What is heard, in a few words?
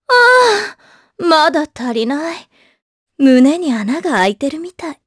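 vox Japanese; Epis; kingsraid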